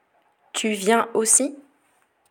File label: "tu viens aussi" French; negation